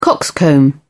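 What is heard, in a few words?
"coxcomb", British English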